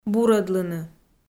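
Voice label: "бурӧдлыны", Komi, female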